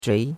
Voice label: "zhui2", chinois; Cours-de-Chinois

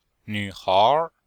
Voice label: "nv3hair2", chinois; Cours de Chinois

